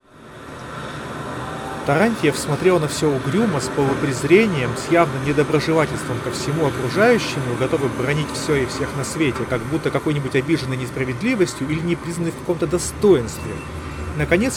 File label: Recorder noise; Запись на профессиональный рекордер (в шумной обстановке); ugreen max5c